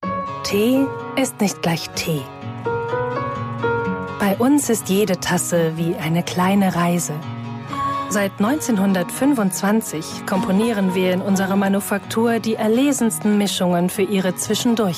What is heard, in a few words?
german promos